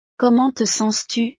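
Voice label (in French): "comment te sens tu", French; estados animo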